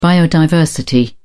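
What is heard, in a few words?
"biodiversity", English, uk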